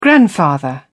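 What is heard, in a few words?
"grandfather", English, British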